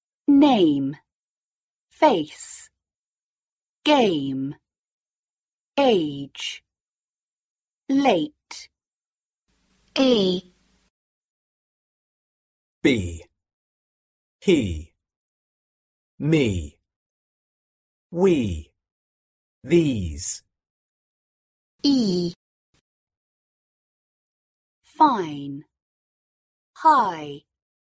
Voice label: Pronunciation Vowels; 初中英语七年级上册江苏译林2024版